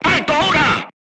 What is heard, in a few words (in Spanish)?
voice message short stop, Spanish